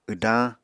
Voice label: "wdañ", Apatani

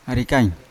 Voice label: "arikain", Yawa; child

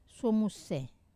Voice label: "suomuse", shark, wamesa

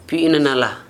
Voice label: pipe, Gta, Dialect: Hill; household

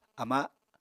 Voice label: "ama7", Apatani

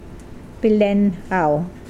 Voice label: "saliva", Mokilese

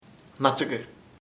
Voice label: "lice piojos", chamacoco

